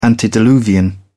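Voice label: "antediluvian", British English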